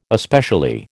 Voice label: "especially", American